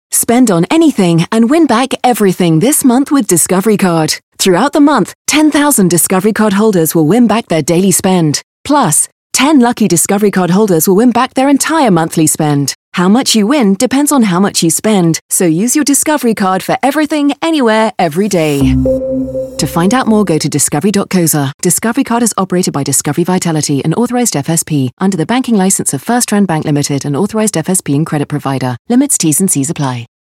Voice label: radio advert; discovery card